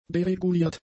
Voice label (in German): "dereguliert", german; adjectives